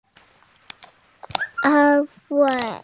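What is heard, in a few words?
"an1wei4", Mandarin Chinese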